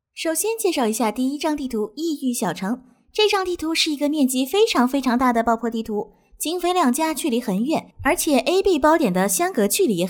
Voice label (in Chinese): 女 中文解说 游戏解说